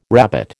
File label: "rabbet", American